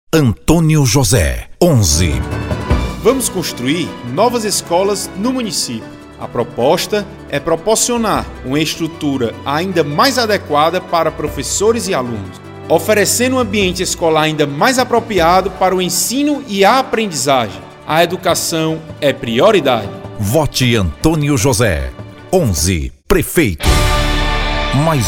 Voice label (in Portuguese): Amostras spots insercoes